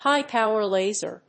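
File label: "high power laser", English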